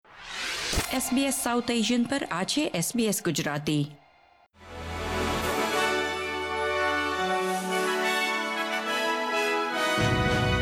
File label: gujarati news bulletin; SBS Gujarati Australian update: 17 April 2025